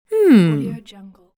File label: female pondering "hmm"; تأیید زن متفکر; human sounds